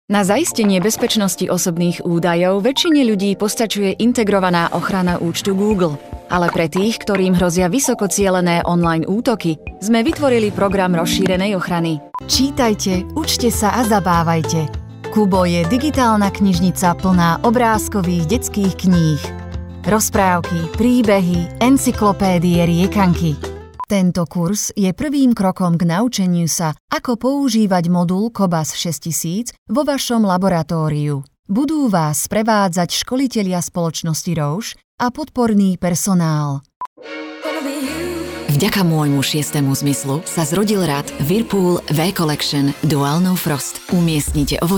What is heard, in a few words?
Slovak